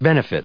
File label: "benefit", us